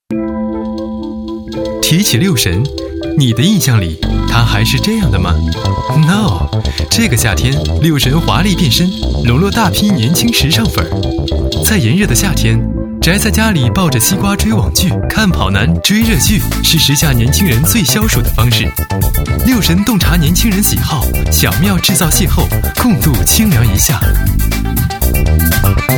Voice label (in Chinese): 男 专题 营销; 六神营销